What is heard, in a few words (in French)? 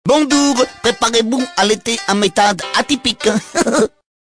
chinois; messages de répondeurs